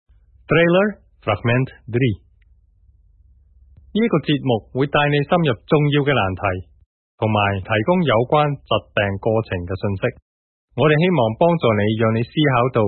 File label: chinese